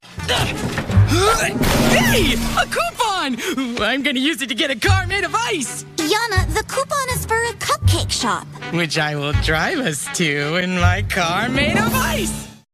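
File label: goofball lovable dimwitted sidekick yeti childlike funny slow, Animation Voice Over, Female Voice Talent; nickelodeon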